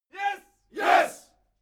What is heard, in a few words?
military shout english "yes"